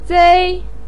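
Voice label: "zei" first tone, Mandarin Chinese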